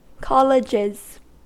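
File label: "colleges", US English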